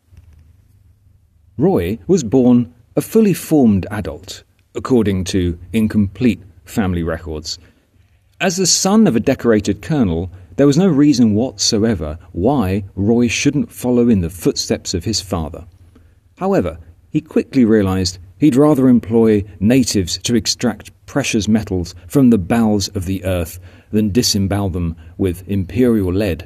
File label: voiceover